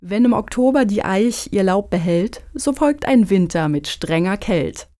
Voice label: german folk saying winter october oak leafs hard winter